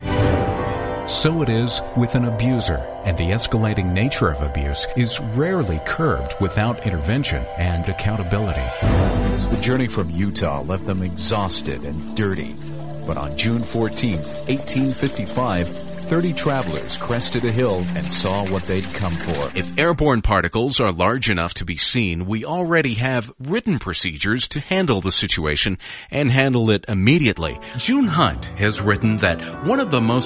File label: Narrations